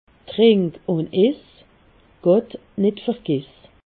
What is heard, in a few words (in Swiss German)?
"gott", alsace